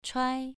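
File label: "chuai2", Chinese pronunciation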